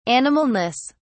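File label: "animalness", British English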